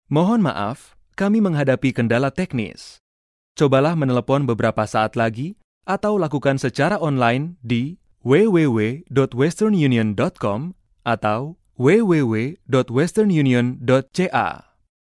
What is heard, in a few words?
indonesian ivr